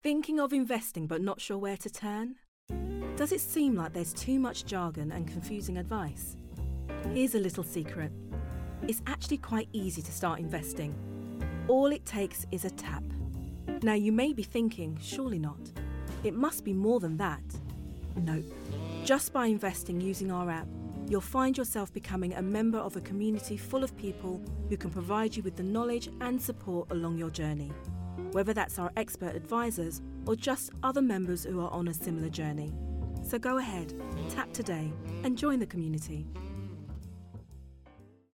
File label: commercial